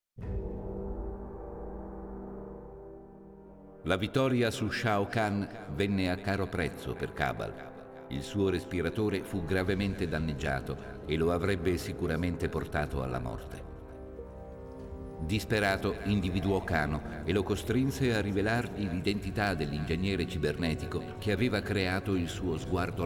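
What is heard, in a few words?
music voice-over endings Italian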